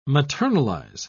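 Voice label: "maternalize", British English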